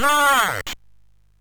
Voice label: MOS6581R2, Electronic Speech Systems; Slapshot